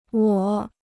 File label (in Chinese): "我", Chinese